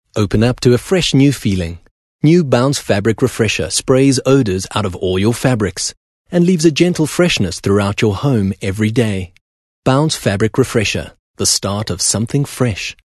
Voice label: English Bright

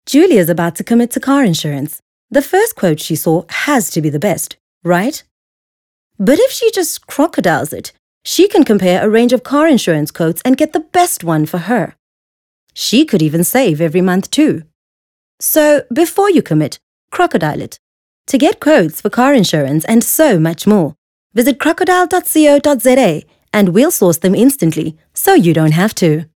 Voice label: English Conversational; OSM Talent

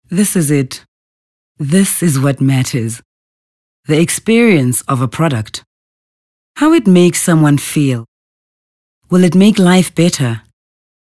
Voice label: English Corporate

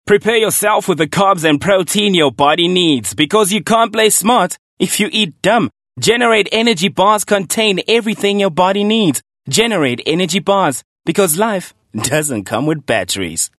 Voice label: English Hardsell

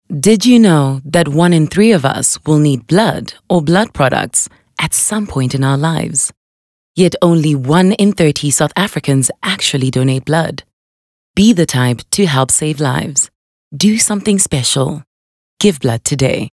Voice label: English Softsell

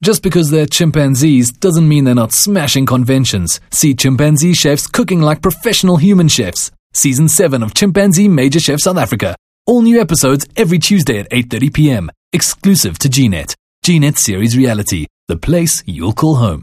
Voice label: English Promo Casual Light

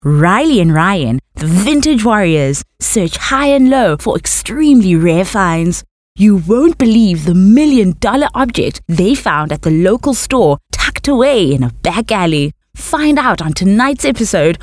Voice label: English Skill Promo; OSM Talent

